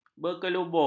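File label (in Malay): "berkelubung", Malay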